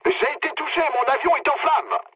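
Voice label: French on fire mood low; War Thunder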